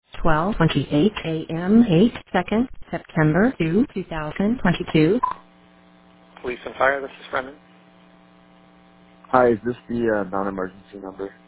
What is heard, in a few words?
noise complaint call